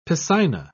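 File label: "piscina", British English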